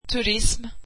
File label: tourism, swedish